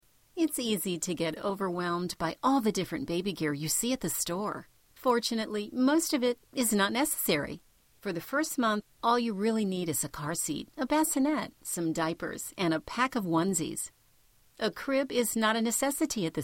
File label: Instructional Movie on Parenting